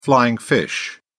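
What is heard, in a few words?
"flying fish", British English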